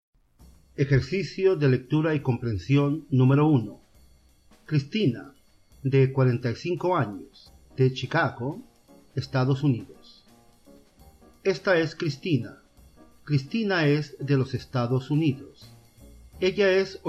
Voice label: Spanish Listening Exercise